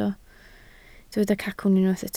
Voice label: "cacwn", Welsh

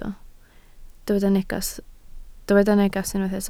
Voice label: "neges", Welsh

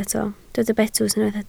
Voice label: "betws", Welsh

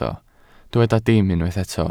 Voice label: "dim", Welsh

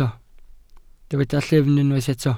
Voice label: "llyfn", Welsh

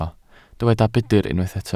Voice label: "budr", Welsh

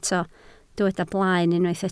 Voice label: "blaen", Welsh

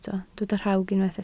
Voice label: "rhawg", Welsh

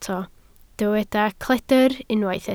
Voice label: "cledr", Welsh

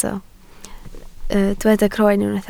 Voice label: "croen", Welsh

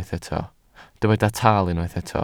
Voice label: "tal", welsh

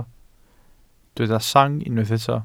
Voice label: "sang", Welsh

